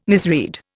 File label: "misread", Indian